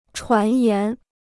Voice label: "传言", Chinese